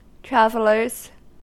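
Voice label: "travelers", US English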